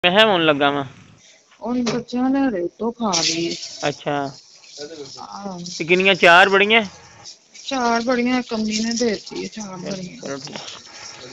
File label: Call recording